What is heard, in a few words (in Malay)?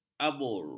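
"abur", Malay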